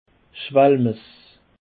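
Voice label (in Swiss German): "schwalmess", Alsatian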